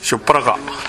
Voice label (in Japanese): "yopparaga", minami yamagata